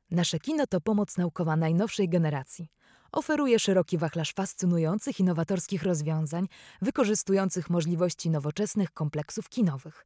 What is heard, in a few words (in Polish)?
Reklama